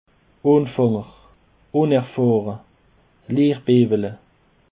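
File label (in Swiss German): "anfaenger", Alsatian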